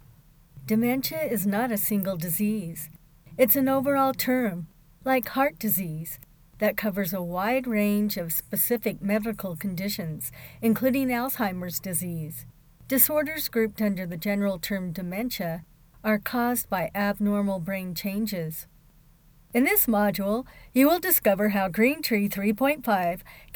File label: character commerical narr